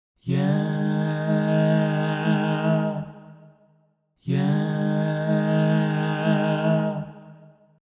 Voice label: Harmony A, vocal effects